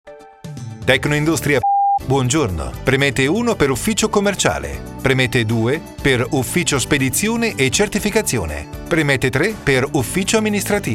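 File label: IVR ITALIAN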